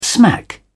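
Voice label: "smack", English, British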